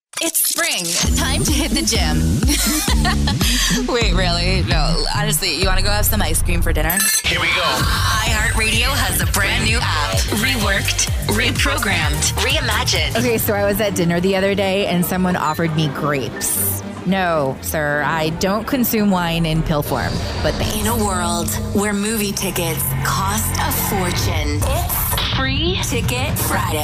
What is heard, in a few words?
radio women CHR; radio imaging